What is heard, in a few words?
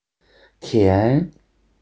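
"tian2", Mandarin Chinese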